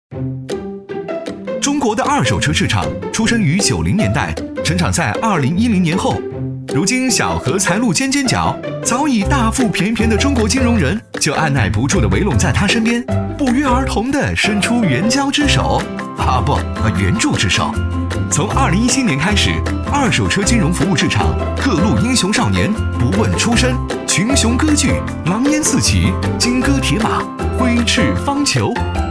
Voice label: 男 轻松 活泼; 飞碟说; 车虫管家

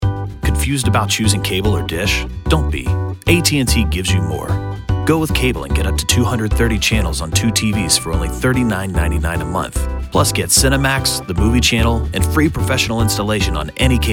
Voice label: 外籍英男 广告; AT-T广告美国电话电报公司

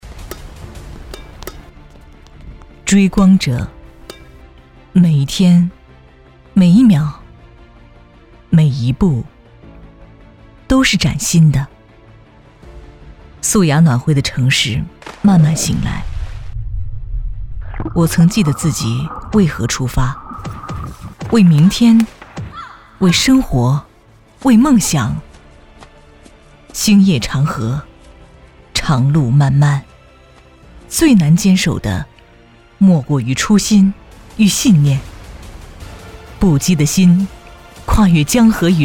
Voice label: 女 电视台公益片

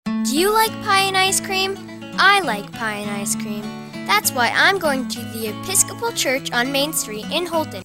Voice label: 童声女 轻快语调

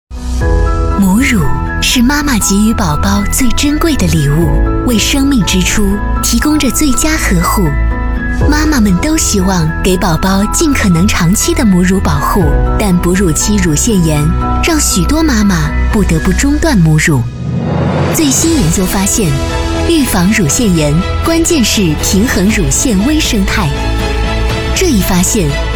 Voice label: 女 广告; 爱蕴美

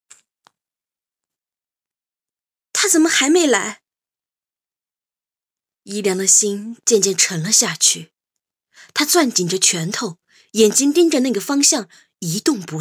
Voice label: 女 有声书旁白

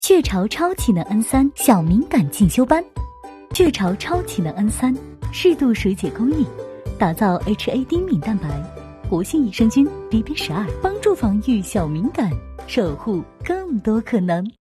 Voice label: 女 广告类; 雀巢超启能恩3

